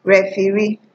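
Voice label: "referee", Nigerian Pidgin, female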